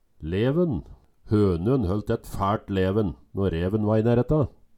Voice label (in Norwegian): leven, Numedalsmål